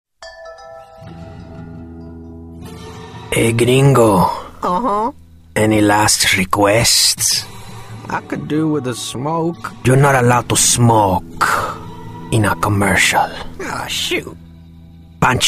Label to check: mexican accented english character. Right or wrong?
Right